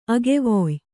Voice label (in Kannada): "ಅಗೆವೊಯ್", Kannada; agevoy; to sow.